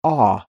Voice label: phoneme short o, American; American IPA phonic